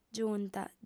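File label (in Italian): "giunta", lingua sarda, female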